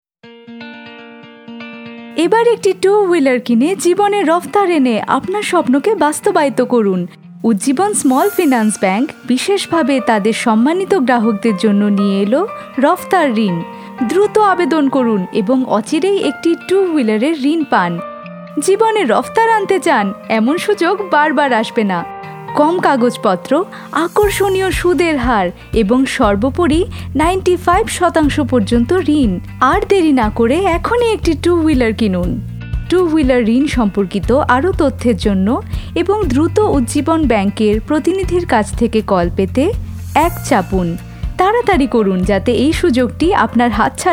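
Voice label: PROMO BENGALI, Female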